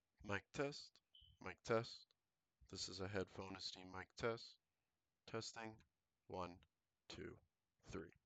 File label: HyperX Cloud Alpha S Mic Quiet Environment